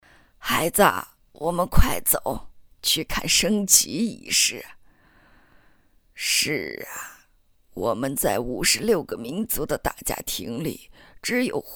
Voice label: 女 角色 老年音 奶奶

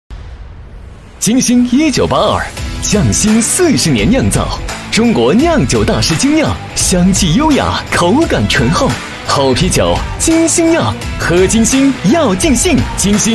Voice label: 男 啤酒广告

